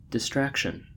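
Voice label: "distraction", American English; pronunciations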